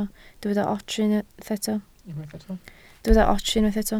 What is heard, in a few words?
"ots", Welsh